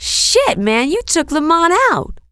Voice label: badass, female; kingpin; mona